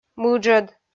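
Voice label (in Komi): "мыджӧд", Komi, female; kpv audio dict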